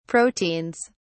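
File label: "proteins", British English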